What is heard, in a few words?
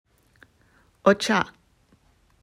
says tea in Japanese